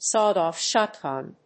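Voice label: "sawed-off shotgun", English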